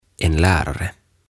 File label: "En Lärare" "Opettaja"